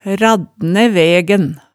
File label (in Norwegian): "raddnæ vægæn", hemsedalsmaalet, tale